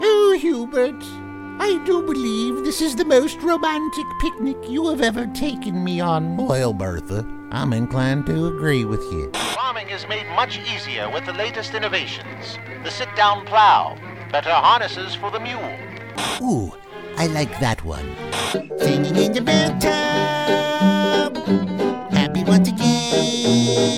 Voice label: Character